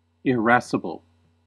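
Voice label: "irascible", US English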